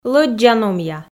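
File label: "лӧддза-номъя", Komi, female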